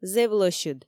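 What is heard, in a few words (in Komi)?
"зэв лӧсьыд", Komi, female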